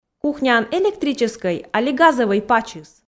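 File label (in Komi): кухняын электрическӧй али газӧвӧй пачыс, Komi, female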